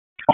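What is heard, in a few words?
EMS DISP, radio; wake; p25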